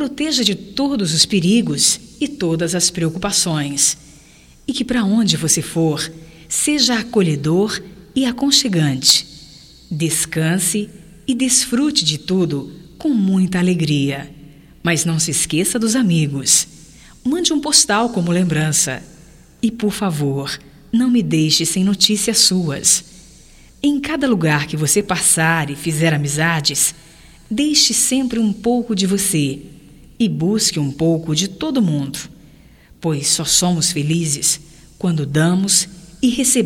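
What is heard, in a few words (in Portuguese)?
BOA VIAGEM GERAL, female, Telemensagens, Só Voz; Telemensagens 2017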